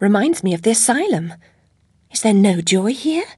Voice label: chitchat; svencoop; Alice